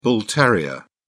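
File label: "bull terrier", British English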